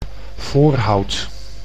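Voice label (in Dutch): "Voorhout", Dutch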